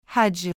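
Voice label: "حجّ" Arabic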